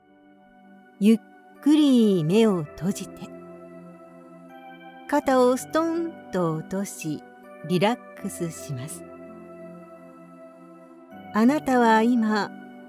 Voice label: japanese